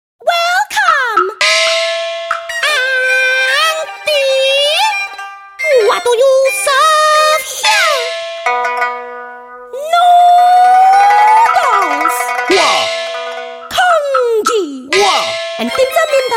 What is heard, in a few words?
Asian English; Wah Noogee Hse